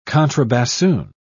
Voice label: "contrabassoon", pronounce

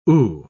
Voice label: "ooh", pronounce

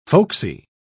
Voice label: "folksy", pronounce

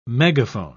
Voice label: "megaphone", pronounce

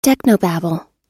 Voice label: "technobabble", pronounce

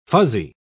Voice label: "fuzzy", pronounce

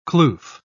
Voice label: "kloof", pronounce

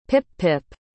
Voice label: "pip-pip", pronounce

